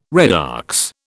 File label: "redox", British